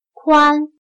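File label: "宽" Chinese, Kuān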